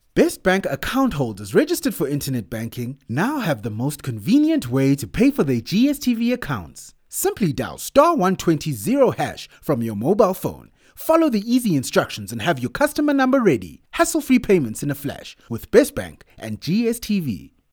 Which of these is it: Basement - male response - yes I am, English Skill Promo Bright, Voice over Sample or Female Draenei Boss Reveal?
English Skill Promo Bright